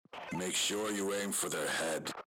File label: roundstart, radio